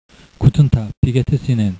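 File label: German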